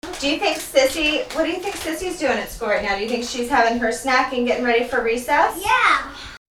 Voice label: Voice with Kitchen Echo REDUCED